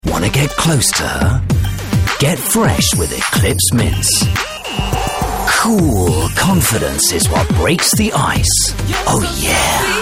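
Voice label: British, TV commercial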